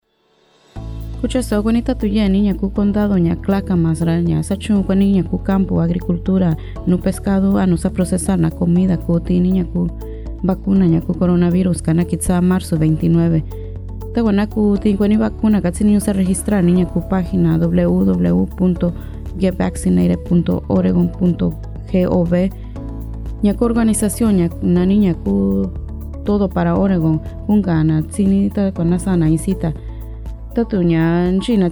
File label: Mixteco Alto; Clackamas; Vaccine Radio Spots; covid19